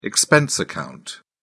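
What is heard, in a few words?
"expense account", English, British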